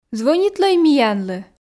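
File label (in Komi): звӧнитлӧй миянлы, Komi, female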